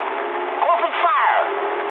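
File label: English attack position mood high; War Thunder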